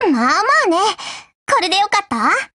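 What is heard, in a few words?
WIN Japanese; GF Alarm; UMP45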